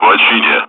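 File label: Speech, Russian, air traffic control; Callsign; Lochini; DCS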